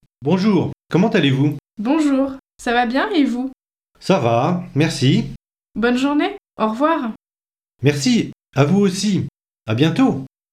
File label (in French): saluer formel normal